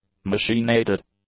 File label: "machine-aided", English; adjectives